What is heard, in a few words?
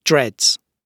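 "dreads", British English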